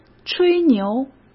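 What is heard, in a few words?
"吹牛", Mandarin Chinese; hsk; chinese-flashcards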